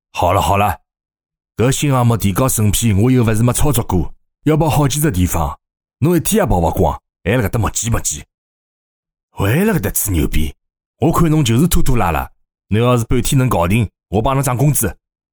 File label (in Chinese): 男 老板 上海话